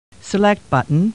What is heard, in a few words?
Star Trek Computer Voice; StarTrekLCAR